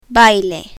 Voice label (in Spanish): "baile", Spanish; spanish alphabet